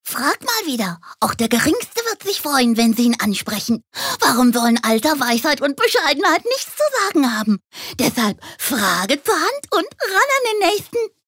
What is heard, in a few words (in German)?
Comic; Charge